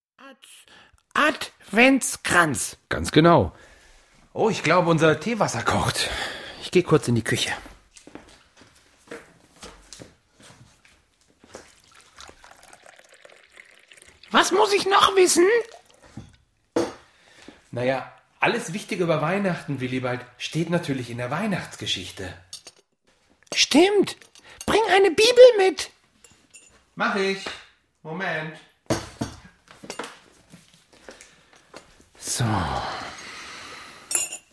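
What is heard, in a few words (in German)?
Hörspiel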